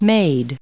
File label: "made", us